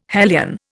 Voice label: "hellion", Indian